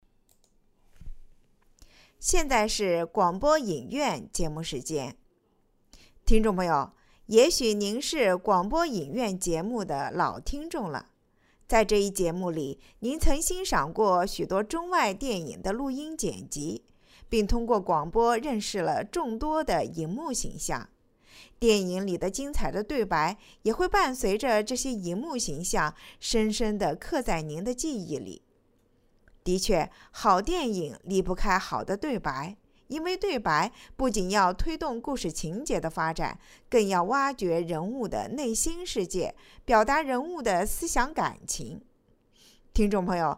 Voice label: 广播影院引子

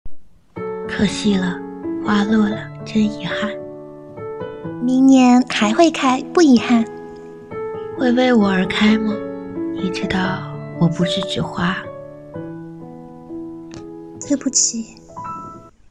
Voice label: 元气少女音

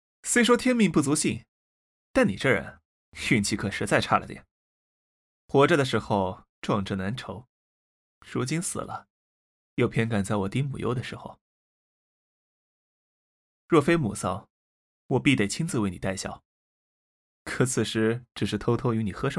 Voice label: 游戏角色音; 忘川风华录刘禹锡

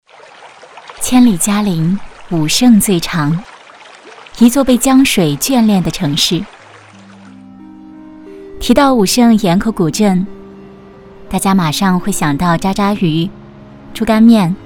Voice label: 宣传; 千里嘉陵武胜

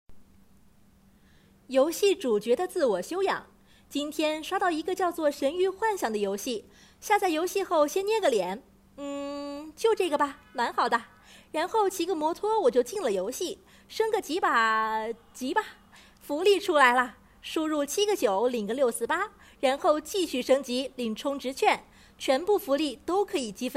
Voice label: 游戏广告配音

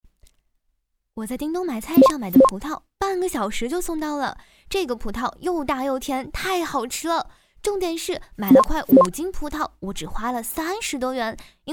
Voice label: 信息流; 叮咚买菜

